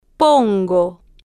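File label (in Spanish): "pongo", Spanish, female, slow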